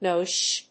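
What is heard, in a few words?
"NOISH", English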